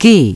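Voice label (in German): "G geschlossen", German; DISTEFORA Navigation; Mobile Navigator Notebook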